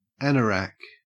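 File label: "anorak", Australian English; pronunciations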